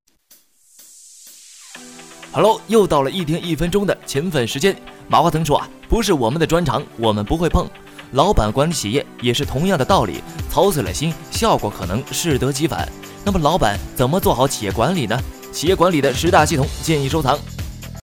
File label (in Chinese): 抖音科普